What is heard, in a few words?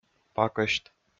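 "пакӧсьт", Komi, male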